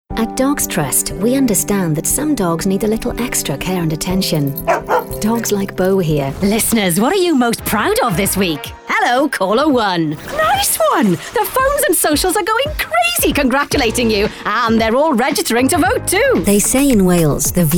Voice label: Commercials English

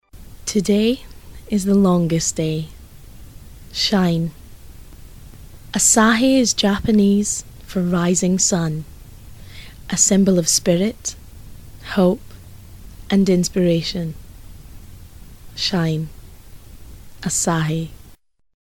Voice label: Commercial; Asahi

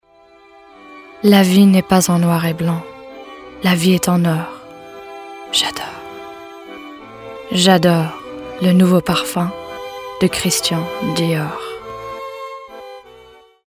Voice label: FRENCH; Dior; Vocal Point

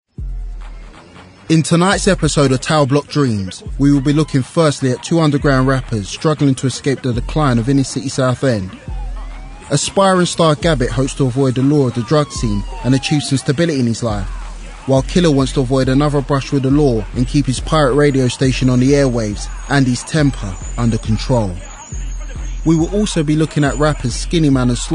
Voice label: Narration; Tower block dreams

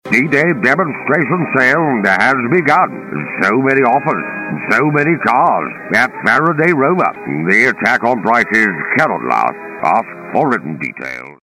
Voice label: Commercial Churchill Style; Rover

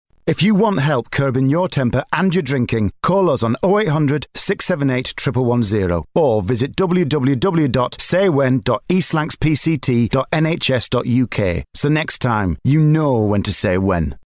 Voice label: Commercial; Alcohol; Vocal Point

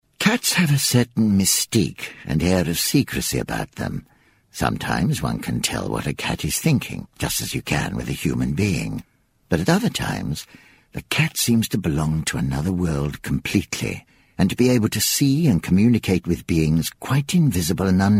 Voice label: Narration; Cats; Vocal Point

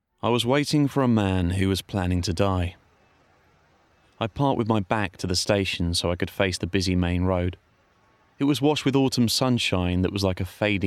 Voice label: Drama; The Murder Bag

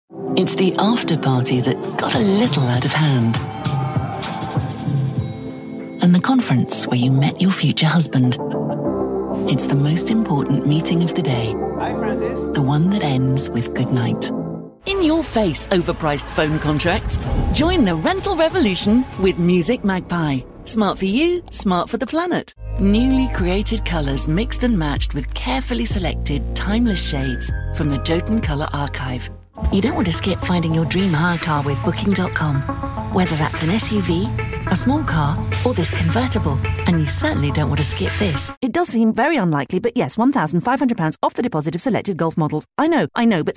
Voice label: Commercials Straight

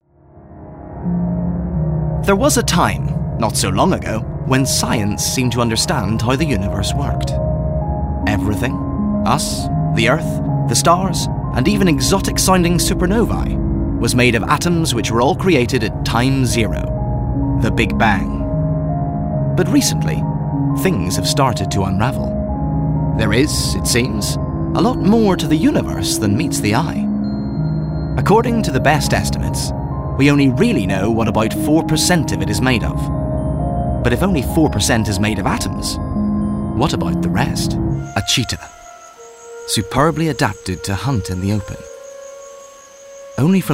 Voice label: Narrative

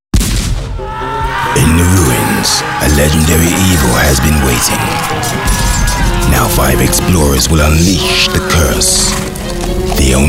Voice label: Promo; Vocal Point

